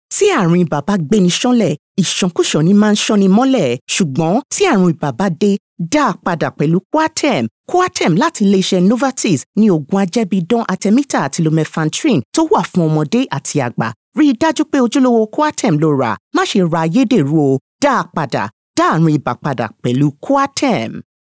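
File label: Yoruba, female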